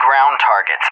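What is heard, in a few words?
"ground targets", English, USA; DCS; Allied Flight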